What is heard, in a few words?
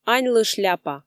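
"аньлы шляпа" Komi, female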